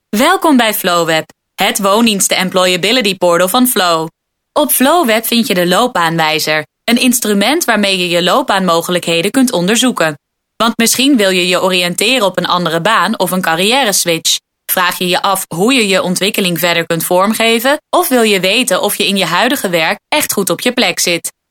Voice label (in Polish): Holenderski